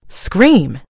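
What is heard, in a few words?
"scream", American English; gcompris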